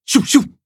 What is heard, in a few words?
battle, zh-hant; Cookie Run